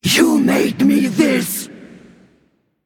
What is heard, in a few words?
Female Old God Attack